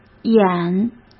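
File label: "眼", Mandarin Chinese; chinese flashcards; hsk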